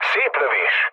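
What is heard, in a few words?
player killed enemy, mood low, Hungarian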